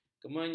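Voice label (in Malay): "kemenyan", Malay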